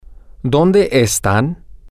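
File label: where are they, Spanish, male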